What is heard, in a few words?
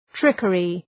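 "trickery", English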